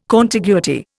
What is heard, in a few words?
"contiguity", Indian